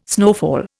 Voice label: "snowfall", Indian